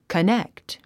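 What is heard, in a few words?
"connect", English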